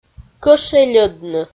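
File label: "кӧшӧльӧдны", Komi, female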